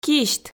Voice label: "кисьт", Komi

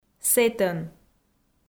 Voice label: "сэтӧн", Komi

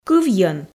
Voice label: "кывйӧн", Komi

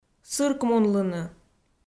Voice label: "сыркмунлыны", Komi

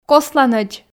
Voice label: "косланӧдз", Komi

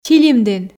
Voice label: "чилимдін", Komi

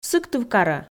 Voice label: "сыктывкарӧ", Komi

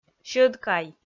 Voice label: "сьӧдкай", Komi

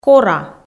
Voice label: "кора", Komi

